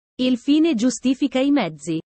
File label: italian sayings about life